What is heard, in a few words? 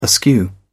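"askew", English, British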